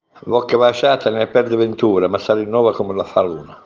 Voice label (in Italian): proverbio abruzzese